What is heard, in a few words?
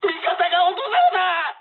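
aircraft destroyed mood med, speech japanese; War Thunder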